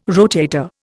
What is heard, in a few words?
"rotator", Indian